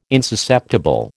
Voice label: "insusceptible", American

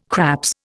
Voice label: "craps", Indian

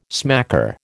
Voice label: "smacker", American

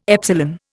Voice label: "epsilon", Indian

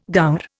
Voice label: "gaur", Indian

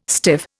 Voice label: "stiff", Indian

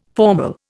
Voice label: "formal", Indian

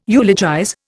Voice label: "eulogize", Indian

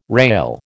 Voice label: "rale", American

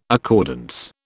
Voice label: "accordance", British

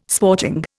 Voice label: "sporting", Indian

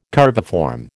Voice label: "curviform", American

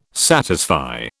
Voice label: "satisfy", British

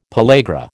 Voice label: "pellagra", American

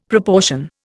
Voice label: "proportion", Indian; Dictionary

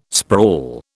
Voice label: "sprawl", British

